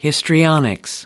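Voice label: "histrionics", American English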